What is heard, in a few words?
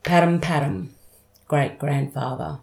"parrəm-parrəm" great-grandfather